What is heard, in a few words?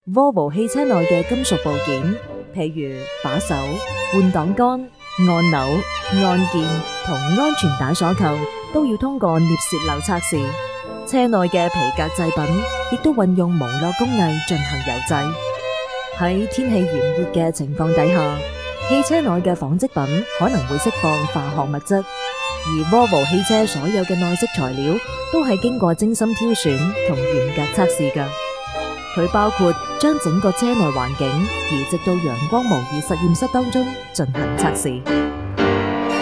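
Cantonese Chinese, female, commercial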